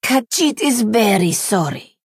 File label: Khajiit female sorry